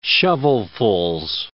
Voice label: "shovelfuls", English

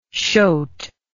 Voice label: "shote", English

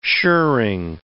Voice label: "shirring", English

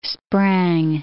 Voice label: "sprang", English

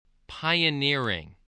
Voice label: "pioneering", voice, English

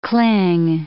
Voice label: "cling", English

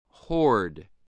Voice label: "hoard", English, spelling

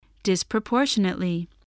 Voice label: "disproportionately", spelling

